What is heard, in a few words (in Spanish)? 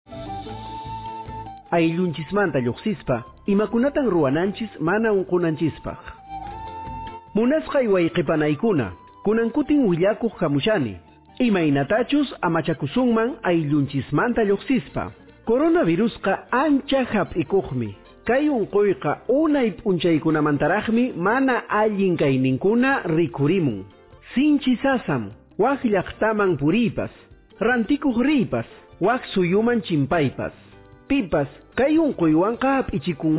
quechua cusco collao prevencion en traslados fuera de la comunidad, spot de radio; precauciones al salir de mi comunidad